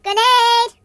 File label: Grenade, voices Singer; hedgewars